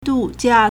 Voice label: "du4jia4", Chinese

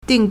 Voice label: "ding4", Chinese

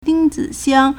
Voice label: "ding1zi3xiang1", Chinese

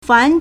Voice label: "fan2", Chinese

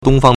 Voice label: "dong1fang1", Chinese

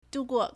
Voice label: "du4guo4", Chinese

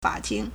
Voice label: "fa3ting2", Chinese

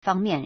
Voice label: "fang1mian4", Chinese

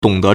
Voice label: "dong3de2", Chinese

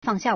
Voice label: "fang4xia", Chinese

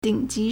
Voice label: "ding3ji2", Chinese

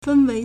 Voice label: "fen1wei2", Chinese

